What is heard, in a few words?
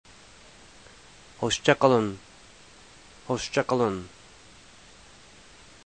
turkish